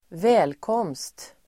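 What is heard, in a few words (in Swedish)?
"välkomst", Swedish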